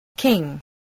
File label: "king", English